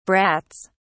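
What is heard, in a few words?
"brats", British English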